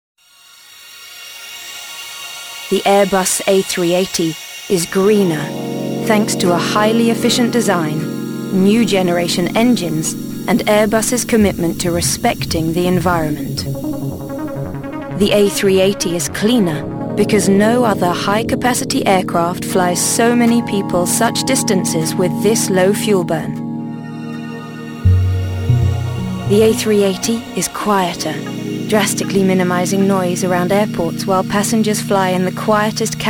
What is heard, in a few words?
Commercial British; Airbus